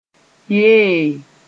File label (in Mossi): "yee", moore